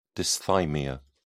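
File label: "dysthymia", British English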